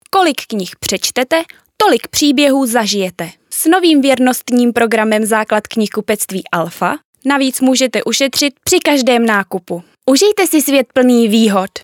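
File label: female, Czech, commercial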